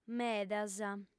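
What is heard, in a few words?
"medas" Sardinian, female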